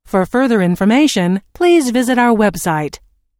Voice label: Telephone prompt: For further information please visit our website, Recorded Voice